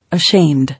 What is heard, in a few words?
"Ashamed", English